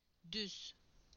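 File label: "straight", Turkish; PictureDictionary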